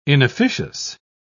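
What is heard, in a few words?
"inofficious", British English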